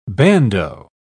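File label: "bandeau", British English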